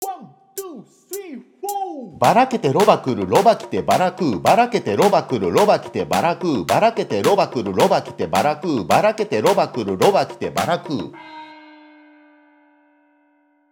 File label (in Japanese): 早口言葉リズム（中級編）